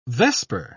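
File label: "vesper", British English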